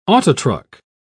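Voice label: "autotruck", English, British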